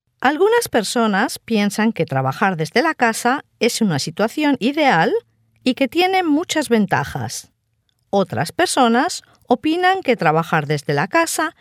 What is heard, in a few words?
spanish audio speaking